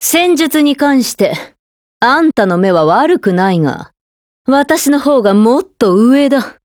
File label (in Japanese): DIALOGUE JP; Python; girlsfrontline